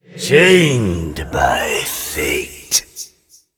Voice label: Ethereal Emote Attack